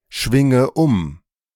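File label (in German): "schwinge um", German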